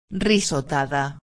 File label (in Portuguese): "risotada", espanhol